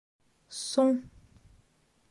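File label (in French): "Son", French; grammaire; adjectifs possessifs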